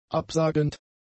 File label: "absagend", German; adjectives